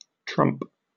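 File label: "trump", British English; pronunciations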